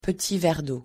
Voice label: pronunciation fr petit verdot

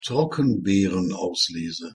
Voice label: pronunciation de trockenbeerenauslese

